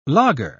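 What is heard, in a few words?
"laager", British English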